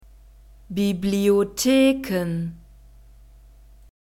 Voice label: "Bibliotheken", German

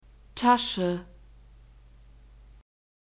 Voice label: "tasche", German; phonetic script grouped consonants

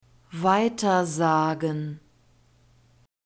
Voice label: "weitersagen", German

